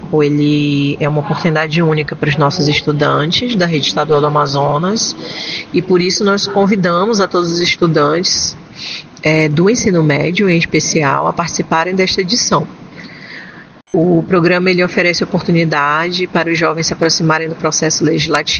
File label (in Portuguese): Educacao coordenadora; Programa Jovem Senador edição 2025